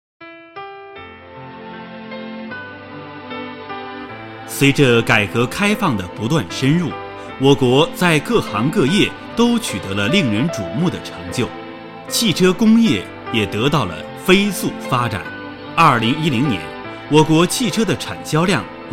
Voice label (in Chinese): 企业 课件 男; 汽车工业